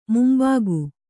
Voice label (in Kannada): "ಮುಂಬಕ್ಕಿ", kannada